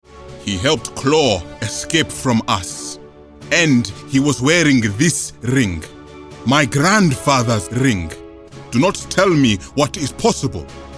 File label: African accent, black british